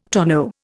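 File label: "tonometer", Indian; Dictionary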